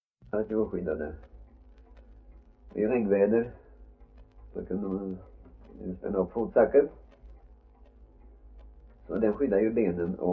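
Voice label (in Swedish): Värö Halland, Svenska sagor dialekter; Folkminnen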